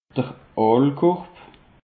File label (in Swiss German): "aalkorb", alsace; peche